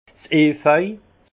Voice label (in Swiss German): "efeu", Alsace; lierre; vin